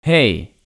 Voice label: "he", "hee", Dutch